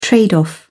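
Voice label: "trade-off", English, British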